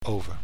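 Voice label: "over", Dutch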